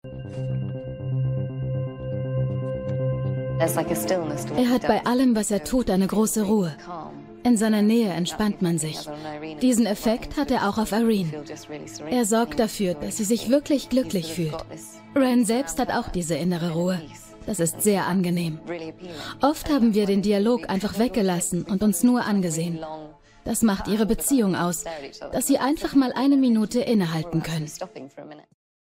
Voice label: Voice Over Doku; Arte; Ryan Gosling; Carey Mulligan; LOFT VOICES